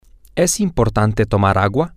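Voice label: is it important to drink water, Spanish, male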